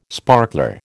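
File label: "sparkler", American English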